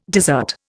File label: "dessert", Indian